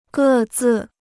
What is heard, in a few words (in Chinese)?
"各自", Chinese